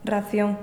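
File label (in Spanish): "racion", Spanish, Andalusia, Sevilla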